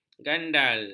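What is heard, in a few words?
"gandal", Malay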